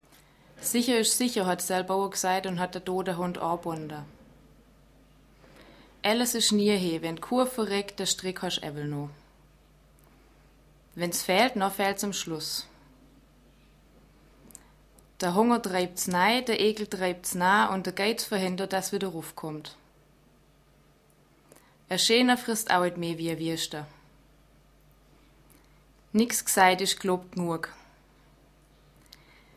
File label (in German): Schwaebisch